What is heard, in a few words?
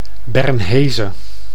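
"Bernheze", Dutch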